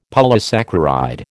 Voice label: "polysaccharide", American

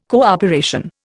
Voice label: "cooperation", Indian

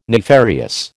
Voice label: "nefarious", American

